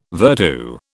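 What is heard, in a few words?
"virtu", British English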